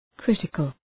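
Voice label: "critical", English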